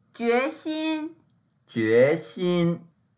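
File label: "jue2xin1", Mandarin Chinese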